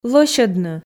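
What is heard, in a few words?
"лӧсьӧдны", Komi, female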